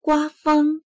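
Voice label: "gua1feng1", Mandarin Chinese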